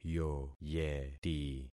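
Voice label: "yoyeti", concatenated syllables; Affixing experiment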